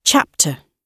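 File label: "chapter", British English; Oxford Audio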